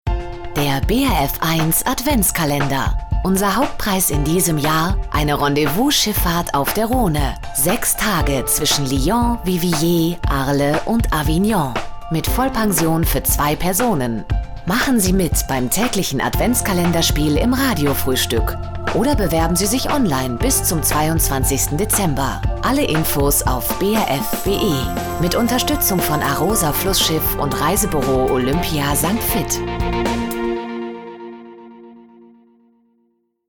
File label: trailer; brf1 adventskalender